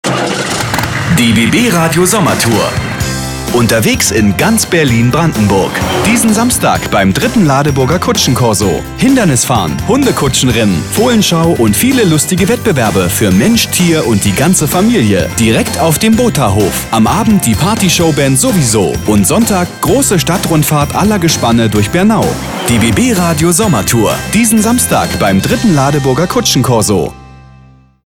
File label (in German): werbespot; Ladeburger Kutschencorso